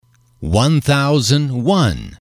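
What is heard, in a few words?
"one thousand one", English, male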